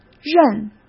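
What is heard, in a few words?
"认", Mandarin Chinese; hsk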